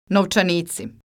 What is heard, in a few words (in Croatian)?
"Novcanici", Croatian